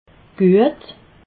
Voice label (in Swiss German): "guet", alsace